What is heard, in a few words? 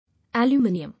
"Aluminium", English